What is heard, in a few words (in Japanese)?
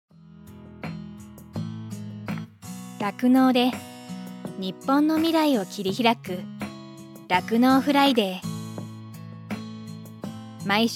企業PV, 女性ナレーター